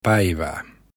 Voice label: hello, finnish

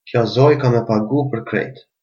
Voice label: pay, albanian gheg

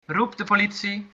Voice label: police, dutch

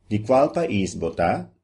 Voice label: "where from", Papiamento

